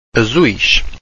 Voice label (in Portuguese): "azuis", Portuguese